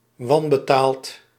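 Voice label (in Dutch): "wanbetaald", Dutch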